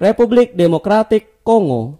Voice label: "democratic republic of congo", id; gcompris-data; geography

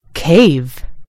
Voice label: "cave", en GB; gcompris

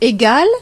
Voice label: "equal", fr; gcompris

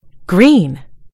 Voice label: "green", en GB; gcompris

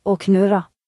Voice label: growl; gcompris-data

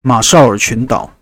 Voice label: marshall islands; gcompris-data; geography

